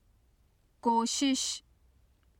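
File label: "koshish", Urdu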